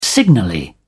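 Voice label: "signally", English, British English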